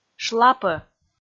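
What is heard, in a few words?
"шлапӧ", Komi, female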